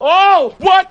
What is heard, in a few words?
"oh what", voice; questions